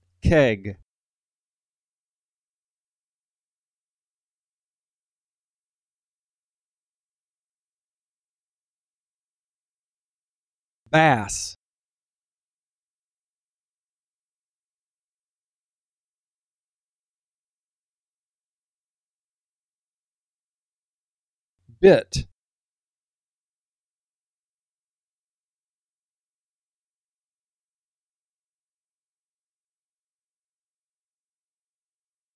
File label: Short Vowel; All We Can Read